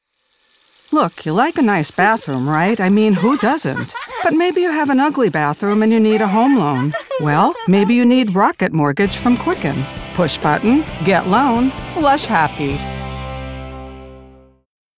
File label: Commercial; Rocket Mortgage